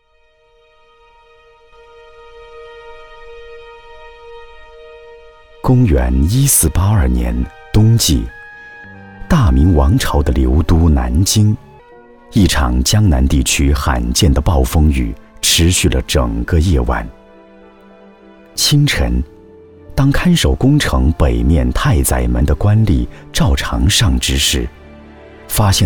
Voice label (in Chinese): 男 纪录片 历史纪录片; 文脉中国